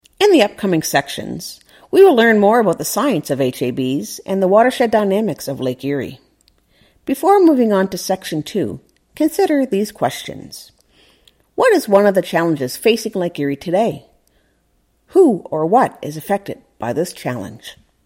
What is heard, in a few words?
narration review